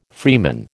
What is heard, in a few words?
"freeman", American